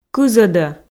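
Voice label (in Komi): "кызӧдӧ", Komi, female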